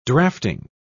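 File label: "drafting", British English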